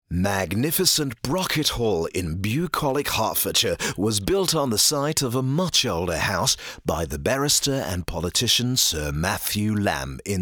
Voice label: british history voice; brockethall